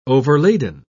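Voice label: "overladen", British English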